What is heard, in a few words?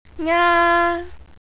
"ngaa", Cantonese